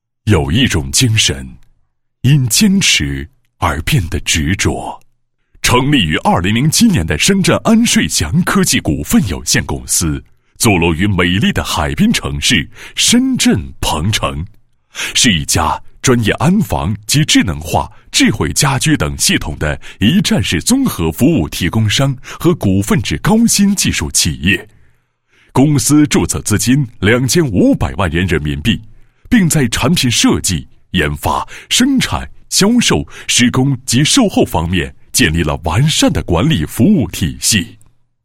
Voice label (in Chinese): 男, 企业宣传; 深圳安顺祥科技股份有限公司